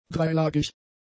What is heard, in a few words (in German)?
"dreilagig", German; adjectives